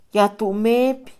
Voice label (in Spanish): dirección, Mixe, Mujer; Moderno; INALI